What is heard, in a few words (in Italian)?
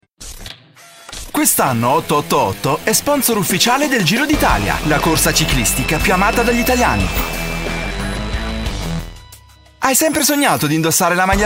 spot radio